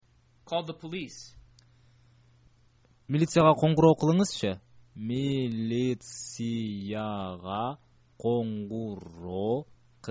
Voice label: call the police, kyrgyz